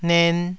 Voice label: "nen2", taiwanese

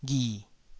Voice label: "ghi3", Taiwanese

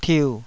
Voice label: "tiu3", taiwanese Syllables

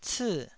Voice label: "cii4", taiwanese

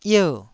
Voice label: "ier4", taiwanese syllables

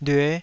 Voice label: "lyue5", Taiwanese

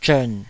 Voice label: "zhern3", Taiwanese

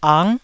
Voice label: "ang9", taiwanese syllable; 台語音節發音檔案

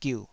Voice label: "giuh3", taiwanese

